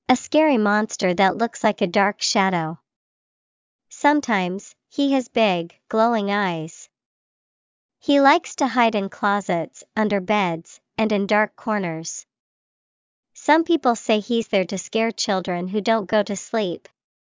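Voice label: bogeyman, English vocabulary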